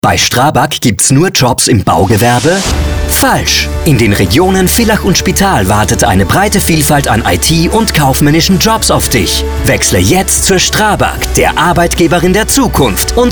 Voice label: WERBUNG; Strabag

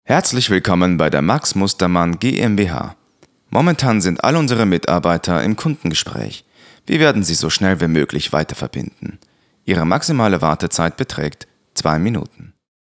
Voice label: Telefonansage; GER

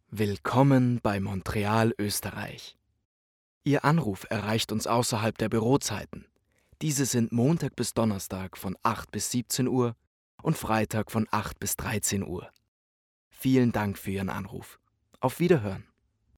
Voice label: Telefonansage

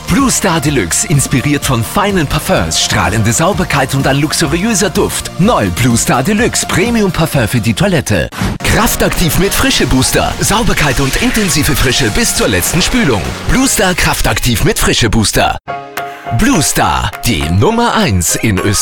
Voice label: WERBUNG; Blue Star

